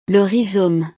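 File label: "le rhizome", French; verduras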